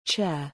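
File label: "chair", English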